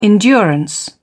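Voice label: "endurance", British English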